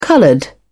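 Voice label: "colored", British English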